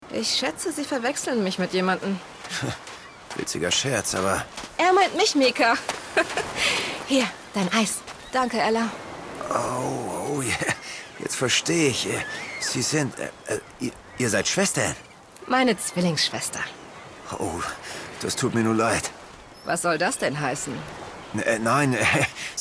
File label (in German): Zwillinge Hoerspiel; Hörspiel